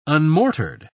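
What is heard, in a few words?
"unmortared", British English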